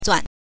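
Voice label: "zuan", Mandarin Chinese syllable, neutral tone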